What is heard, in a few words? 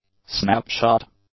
"snap shot", english; nouns